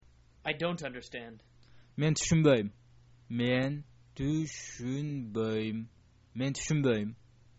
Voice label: i dont understand, kyrgyz